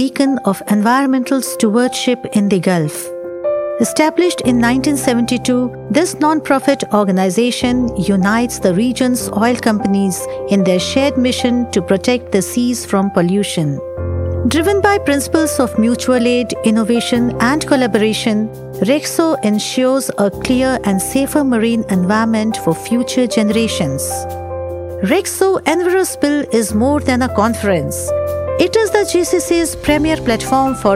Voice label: English, Voice Over Artist Female